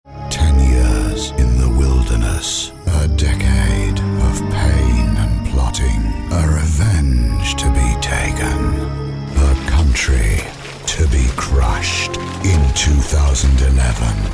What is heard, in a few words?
movie trailers ominous